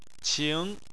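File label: "qing", Chinese, tone 2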